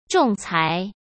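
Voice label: "仲裁", Mandarin Chinese; chinese-flashcards; hsk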